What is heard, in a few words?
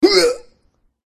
human male wound